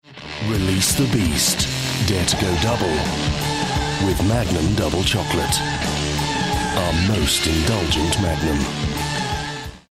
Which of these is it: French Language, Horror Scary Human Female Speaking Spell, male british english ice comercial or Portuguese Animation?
male british english ice comercial